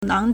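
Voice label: "nang2", Mandarin Chinese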